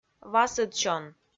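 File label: "васӧд сён", Komi-Zyrian, female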